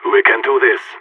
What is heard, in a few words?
Pep talk; Phoenix